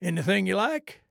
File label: trader male like greeting